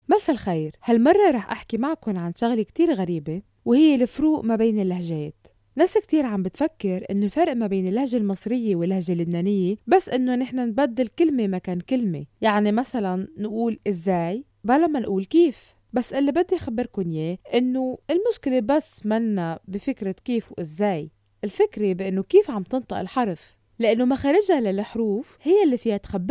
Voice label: Lebanese Arabic